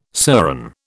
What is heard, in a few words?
"serran", British